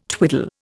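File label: "twiddle", Indian English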